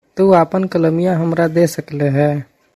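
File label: Tu apan kalamiya hamara de sakle hen?, Magahi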